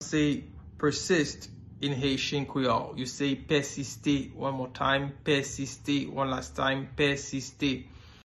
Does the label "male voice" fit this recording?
No